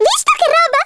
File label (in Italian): "Brilliant", Italian, Speech; Worms Armageddon